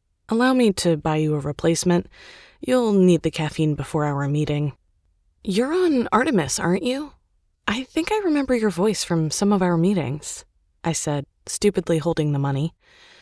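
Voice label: Romance M F Dialogue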